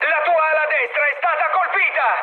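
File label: Italian right wing mood high, speech; War Thunder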